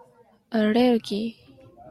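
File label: "allergy", Japanese, female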